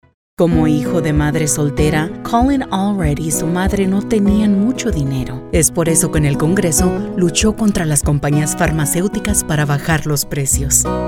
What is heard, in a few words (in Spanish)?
Spanish Political; Hispanic Political Voiceover